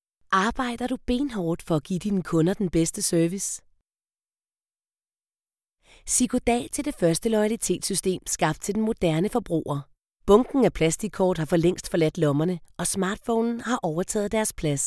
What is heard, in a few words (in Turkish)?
Danca Kadin; seslendirme